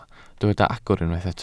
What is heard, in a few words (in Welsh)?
"agor", Welsh